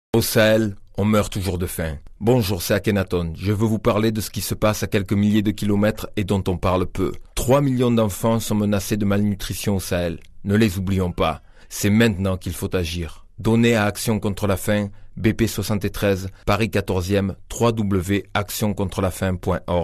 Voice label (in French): radiopub spot